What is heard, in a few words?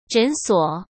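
"诊所", Mandarin Chinese; chinese-flashcards; hsk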